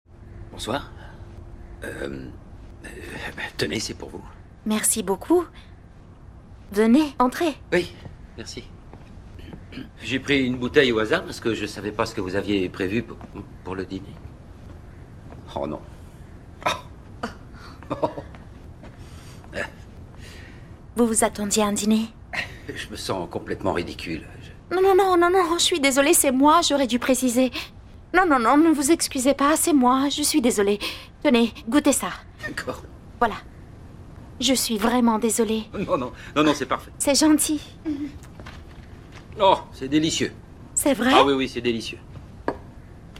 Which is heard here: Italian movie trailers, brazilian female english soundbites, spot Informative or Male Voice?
Italian movie trailers